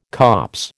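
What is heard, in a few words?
"copse", American English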